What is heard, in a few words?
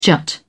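"jut", British English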